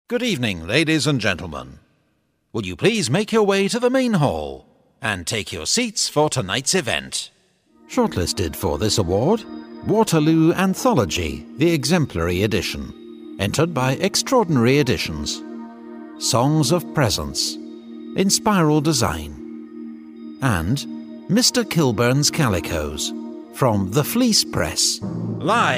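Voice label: events voice of god demo, Warm Soft Scottish Voice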